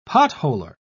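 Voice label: "potholer", British English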